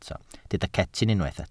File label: "cetyn", Welsh